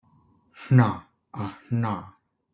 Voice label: Voiceless retroflex nasal